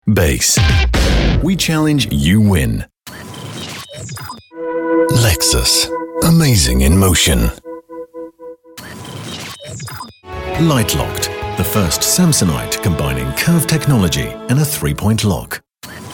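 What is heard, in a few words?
UK English, male, commercial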